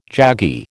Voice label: "jaggy", American